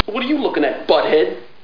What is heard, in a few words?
threat; worms; amiga